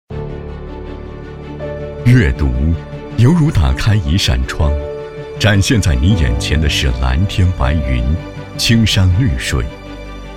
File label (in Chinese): 男 活动宣传 阅读日